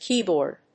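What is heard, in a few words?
"keyboard", English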